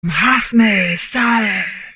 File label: humanoid female; Stellaris; humanoids